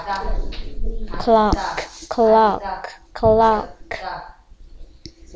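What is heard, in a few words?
"clock", spoken word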